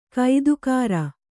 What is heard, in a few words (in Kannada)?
"ಗೊತ್ತುಗುರಿ", Kannada